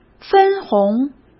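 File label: "分红", Mandarin Chinese; chinese-flashcards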